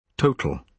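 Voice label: "total", British English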